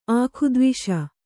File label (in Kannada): "ಆಖುದ್ವಿಷ", Kannada